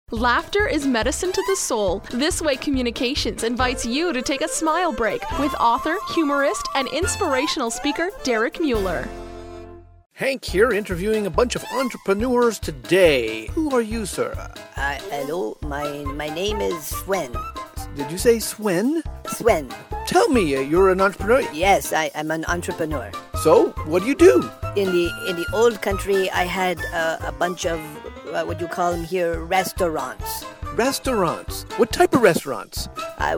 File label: Radio sketch